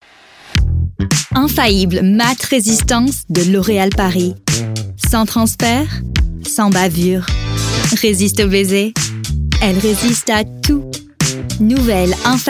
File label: French